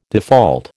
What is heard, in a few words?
"default", American